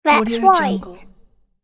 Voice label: boy says "that's right"